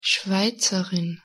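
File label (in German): "schweizerin", German; pronunciation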